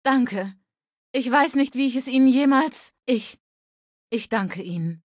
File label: Female adult